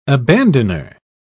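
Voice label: "abandoner", British English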